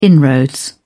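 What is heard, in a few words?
"inroad", British English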